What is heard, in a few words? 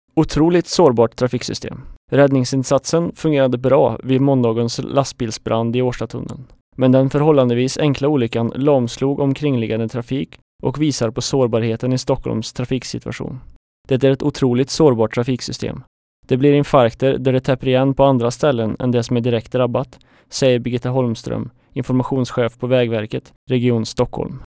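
swedish male narration; voice demos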